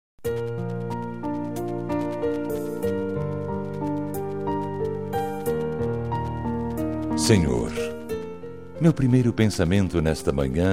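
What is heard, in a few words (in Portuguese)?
Oracao da Manha, Masculino; religiosas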